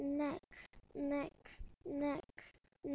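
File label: "neck", spoken word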